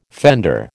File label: "fender", American